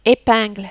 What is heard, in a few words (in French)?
"epingle", French